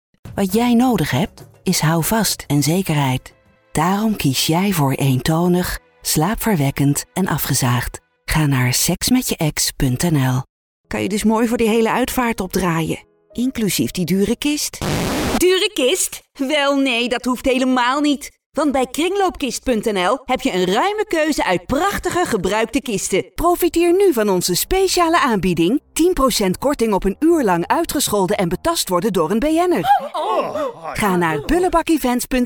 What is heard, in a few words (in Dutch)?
alternatieve commercial